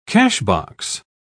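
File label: "cashbox", British English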